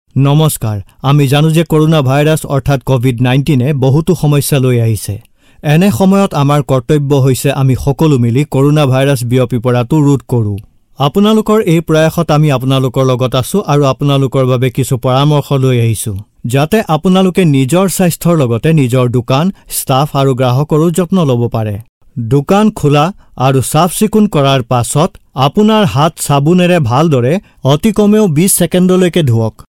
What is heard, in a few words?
ASSAMESE MALE